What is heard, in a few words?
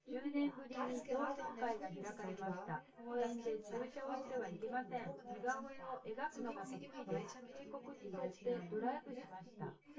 female synth conv sim; SiSEC10; underdetermined